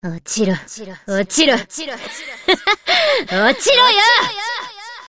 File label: Enemy Voice Damaged Attack; Anti-Air Cruiser Princess